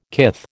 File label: "kith", American